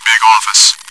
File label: big office, radio